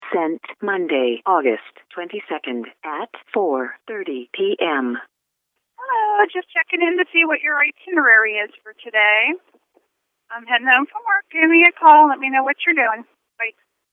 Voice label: voicemail